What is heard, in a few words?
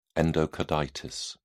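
"endocarditis", British English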